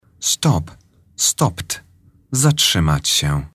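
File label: "stop", English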